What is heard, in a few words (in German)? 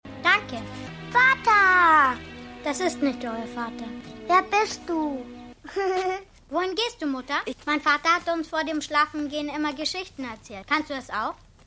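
Jüngerer Sohn Tochter Älterer Sohn; Hercules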